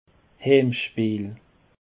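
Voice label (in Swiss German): "haamspeel", Alsatian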